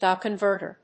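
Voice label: "D A converter", English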